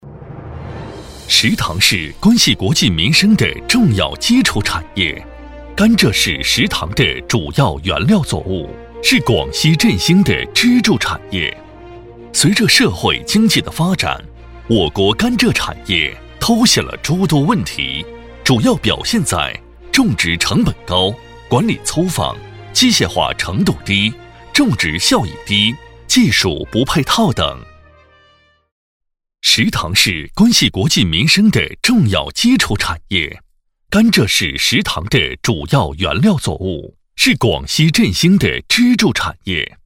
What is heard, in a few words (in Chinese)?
男 宣传 广西蔗糖